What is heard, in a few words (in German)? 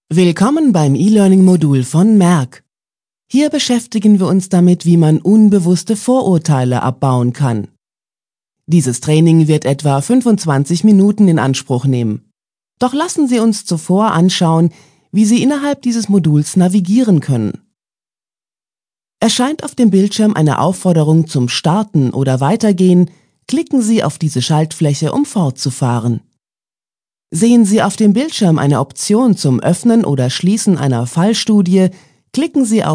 Mitarbeiterschulung